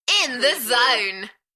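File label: British female In the zone Enthusiastic; beanstalk audio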